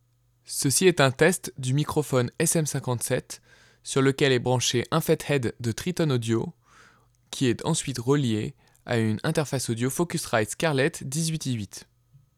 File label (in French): voix avec fethead